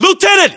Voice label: lieutenant, battlechatter, english, us